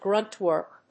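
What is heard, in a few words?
"gruntwork", English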